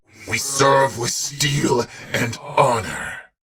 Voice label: Patrol, en; Warframe; Battle; Dax; DaxKnight

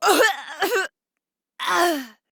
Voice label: Death Female; Warframe; Modular Corpus Female; Battle Barks; en

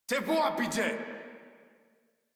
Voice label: Reload Modular Corpus Male; Dialog; Barks; Battle; Warframe

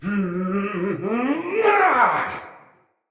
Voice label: Attack Heavy, Battle, Barks, Dialog; Mendicant Wazard

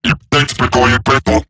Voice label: Attack Robot Large; Dialog; Barks; Battle; en; Eximus Corpus; Corpus Robotic Large

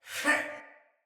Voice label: Attack Soldier Male; Sinecure Soldier Male A

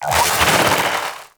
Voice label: Vocal Pain; Warframe; Sentients; Conculyst

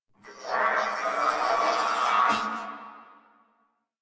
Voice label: Death Crewmen, Battle Barks; Dialog; Warframe; Corpus Crewmen; Crewman C

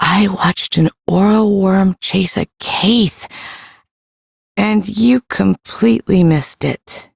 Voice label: Ambient Female, en; Duviri

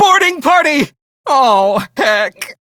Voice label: Enemy Spot, Male; Dialog; Warframe; Railjack Crew; Perrin Sequence

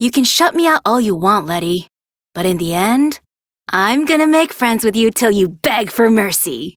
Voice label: Idle Barks, en; Aoi

